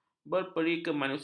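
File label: "berperikemanusiaan", Malay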